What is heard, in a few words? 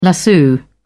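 "lasso", British English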